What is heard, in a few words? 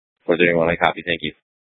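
Sheriff, Parks, Sheriff radio scanner; Shrf Mnt (Parks)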